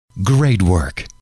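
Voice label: great Work I M Very Proud Of You, male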